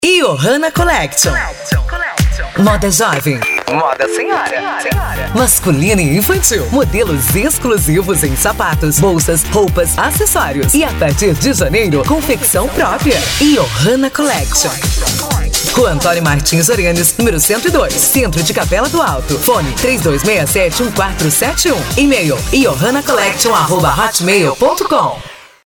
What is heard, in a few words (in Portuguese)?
Locutora, vozes feminina